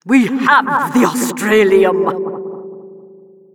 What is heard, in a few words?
Announcer possession